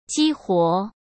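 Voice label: "激活", Mandarin Chinese; chinese flashcards; hsk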